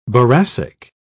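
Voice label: "boracic", British English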